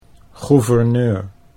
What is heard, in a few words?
"gouverneur", Dutch